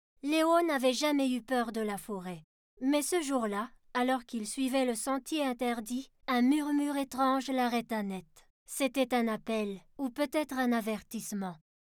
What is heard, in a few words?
storytelling